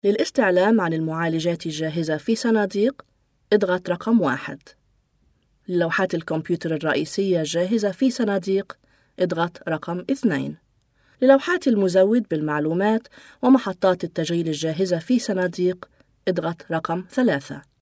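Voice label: arab female voice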